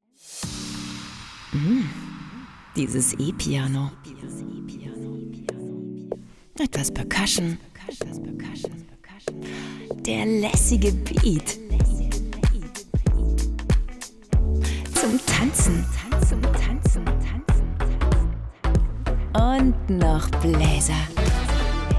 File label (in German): stationvoice cool; rhh; ramp neu; megahit